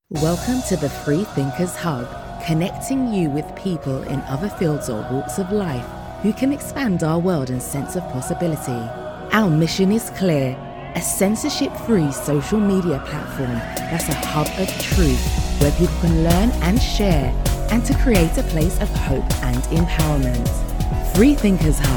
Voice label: English Voice Over